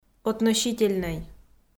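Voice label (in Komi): "относительнӧй", Komi, female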